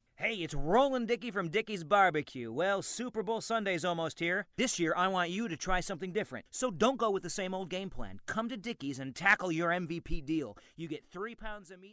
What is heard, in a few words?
Character